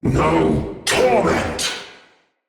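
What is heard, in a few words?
Attack Heavy, Battle Barks, Dialog, English; Nimrod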